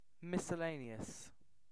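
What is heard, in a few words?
"miscellaneous", British English; pronunciations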